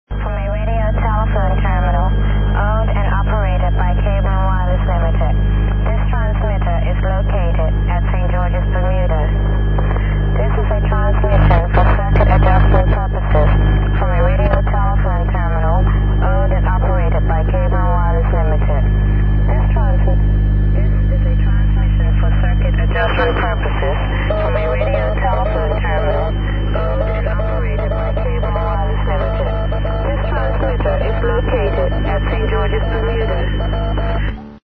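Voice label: upper sideband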